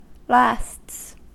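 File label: "lasts", American English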